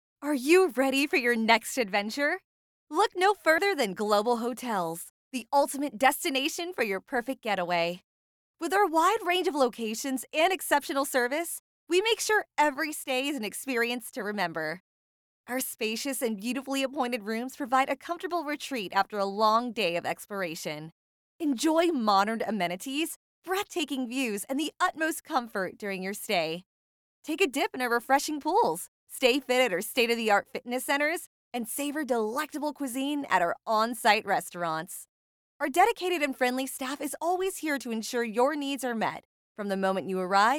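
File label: English American, female, voice over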